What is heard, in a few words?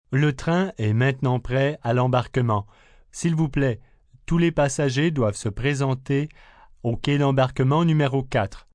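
Train Ready French, Train, subway, PA, announcements, male, French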